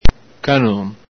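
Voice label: "canon", Veneto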